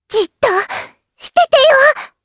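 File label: voice, Japanese; Lavril; kingsraid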